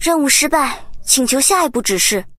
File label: sad